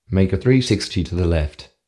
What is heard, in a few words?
turn 360, aus